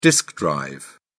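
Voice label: "disk drive", English, British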